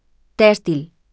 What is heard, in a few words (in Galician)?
voz sintética; Sorriso